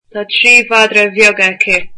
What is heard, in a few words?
"she has three small dogs", Irish